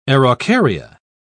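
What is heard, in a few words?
"araucaria", British English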